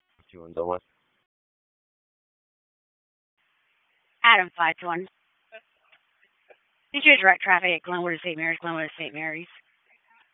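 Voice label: Police Southwest District Disp, radio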